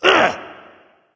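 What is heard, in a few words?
grunt hit, dragon; fafnir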